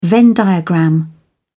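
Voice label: "venn diagram", British English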